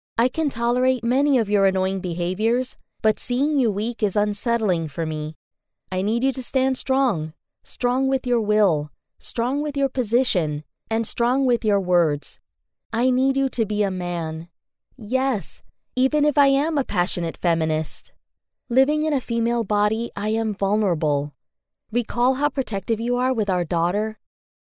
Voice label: Her Voice Warrior; warrior archetype